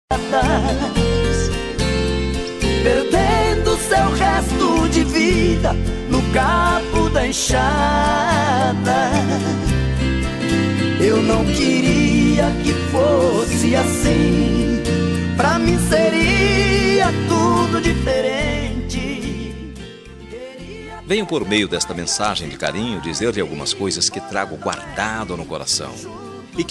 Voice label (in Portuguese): avo masc, Dia dos Pais para Avô – Voz Masculina